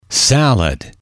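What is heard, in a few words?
"salad", English, male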